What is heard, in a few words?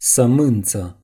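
"pip", Romanian; gcompris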